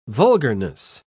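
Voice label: "vulgarness", British English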